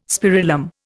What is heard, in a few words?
"spirillum", Indian